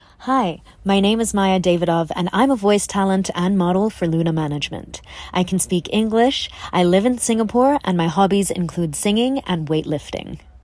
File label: English